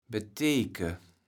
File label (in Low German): "beteeke", kaevels platt